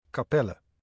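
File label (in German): "Kapelle", German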